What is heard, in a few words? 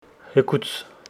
Homshetsi tomorrow